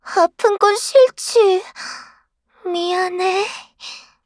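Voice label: kill Player, Voice Line, Korean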